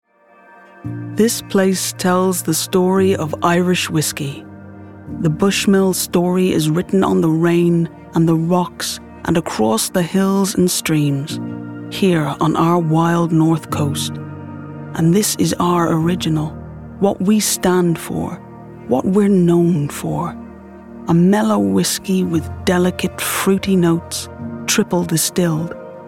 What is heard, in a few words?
ad; Bushmills